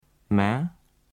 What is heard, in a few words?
"ma" high rising, vietnamese